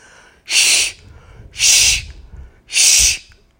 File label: Sh Voiceless